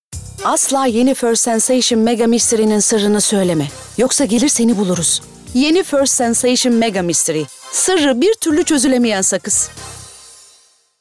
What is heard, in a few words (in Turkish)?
Komik